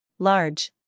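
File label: "scandal", American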